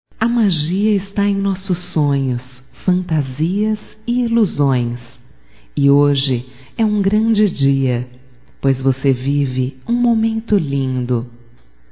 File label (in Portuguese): ANIV NEUTRO, fem; Telemensagens 2017; Aniversarios